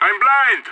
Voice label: flashed, radio; SAS

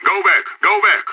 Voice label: fallback, radio; SAS

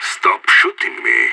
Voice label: friendly fire, radio; IDF

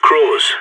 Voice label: crawls, radio; SAS

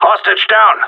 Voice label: hostage down, radio; GSG9

